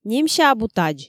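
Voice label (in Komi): немся абу тадз, Komi, female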